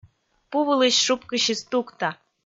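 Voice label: "пу вылысь шупкысис тукта", Komi, female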